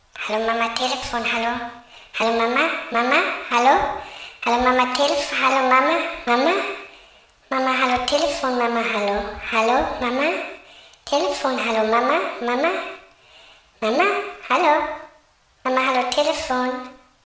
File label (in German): hallo mama telefon